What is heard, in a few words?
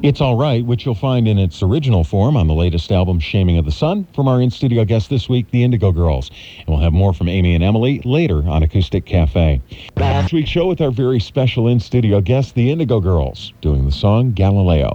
announcer